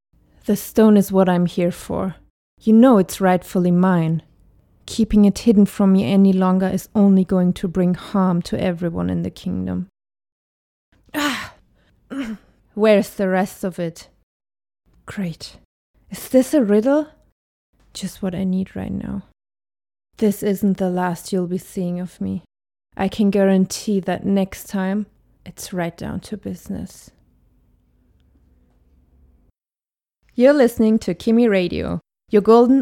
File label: my english voice